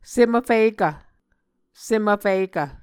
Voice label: "sem vfikv", Muscogee

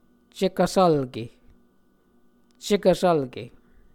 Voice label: "cekvsvlke", muscogee

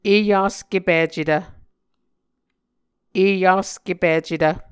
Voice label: "eyaskepicetv", muscogee

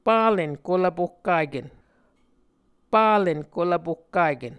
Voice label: "palen kolvpohkaken", Muscogee

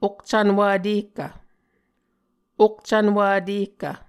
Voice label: "okcvnwv vtehkv", Muscogee

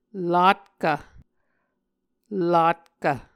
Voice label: "latkv", Muscogee

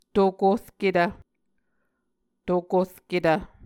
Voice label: "tokorketv", Muscogee

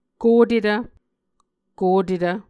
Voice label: "kotetv", Muscogee

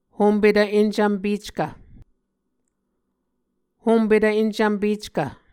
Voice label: "hompetv encvmpeckv", Muscogee

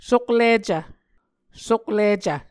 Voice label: "soklicv", Muscogee

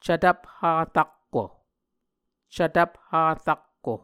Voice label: "cvtvpha rakko", muscogee

